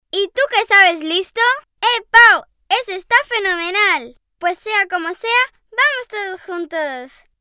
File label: locutora nina, child voiceover, Spanish child voice talent 8 years old